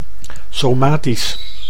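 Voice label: "somatisch", Dutch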